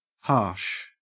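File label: "harsh", Australian English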